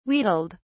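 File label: "wheedled", British English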